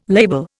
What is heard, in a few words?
"label", Indian